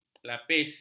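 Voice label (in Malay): "lapis", Malay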